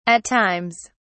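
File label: "at times", British English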